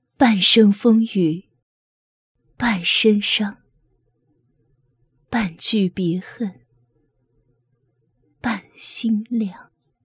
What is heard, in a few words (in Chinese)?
女 游戏; 钟无艳